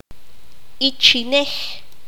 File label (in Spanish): espalda, qanjobal